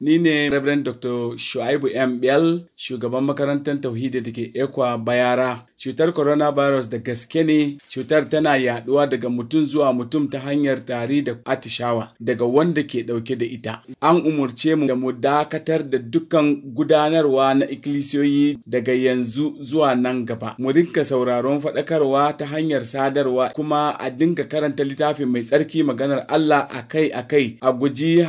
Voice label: MALE, Hausa; BAUCHI